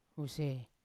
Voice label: "nai usei", Yawa; in front of

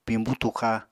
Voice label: "piñbú tuxa", Apatani

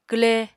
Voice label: "kwle", Apatani

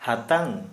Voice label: Santali Brain

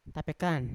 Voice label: "tapekan" thick, Yawa

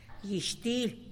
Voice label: "gyish til", tlacolula; carrizo

